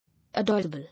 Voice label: "Adorable", English

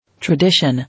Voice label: "Tradition", English

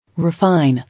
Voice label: "Refine", English

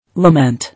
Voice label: "Lament", English

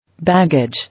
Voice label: "Baggage", English

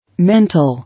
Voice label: "Mental", English

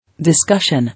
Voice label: "Discussion", English

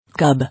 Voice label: "Cub", English

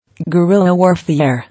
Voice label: "Gurilla warfare", English

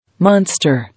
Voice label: "Monster", English

